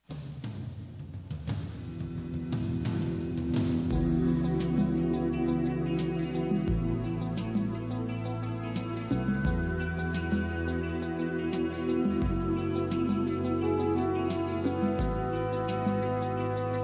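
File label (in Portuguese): HOMENAGEM A MÃE, Masculino; Dia das Mães; Telemensagens 2017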